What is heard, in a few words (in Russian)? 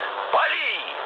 attack position, mood low, speech ru; War Thunder